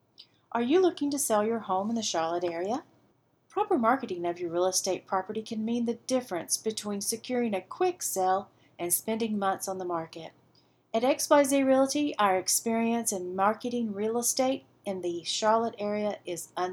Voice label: Real Estate Recordings, voice over; XYZ Realty